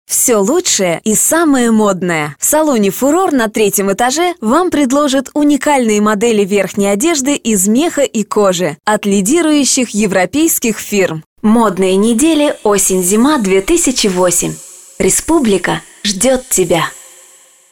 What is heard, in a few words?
locutora rusa russian female voiceover